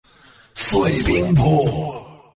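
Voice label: ability ice blast, Chinese; Appa; Dota 2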